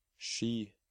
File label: "Sky", Norwegian